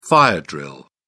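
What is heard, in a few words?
"fire drill", British English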